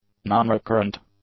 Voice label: "non-recurrent", english; adjectives

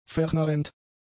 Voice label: "vernarrend", German; adjectives

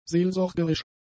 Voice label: "seelsorgerisch", German; adjectives

